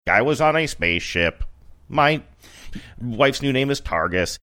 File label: computer like; i was on spaceship